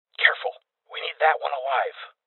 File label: Voices Boss Spotted Male; rebel cops 2019 gamerip